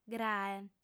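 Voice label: "grae", Sardinian, female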